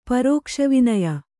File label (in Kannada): "ಪರೋಕ್ಷವಿನೆಯ", Kannada